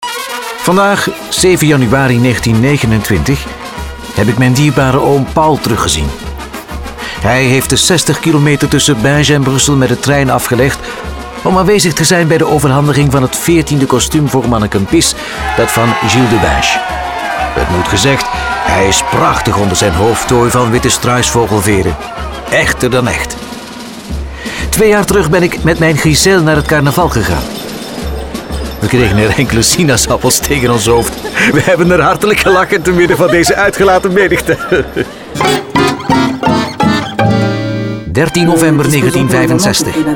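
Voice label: Dutch Audioguide Flemish; GoLocalise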